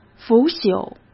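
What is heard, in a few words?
"腐朽", Mandarin Chinese; hsk; chinese-flashcards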